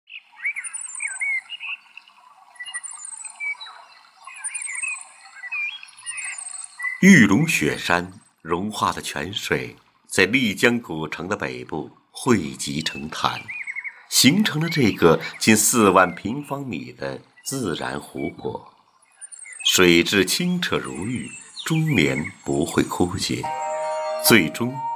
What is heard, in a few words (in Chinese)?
雪山泉水纪录片